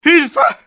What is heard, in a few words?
help me, male; XBOX